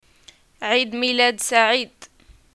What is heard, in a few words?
"greeting happy birthday", arabic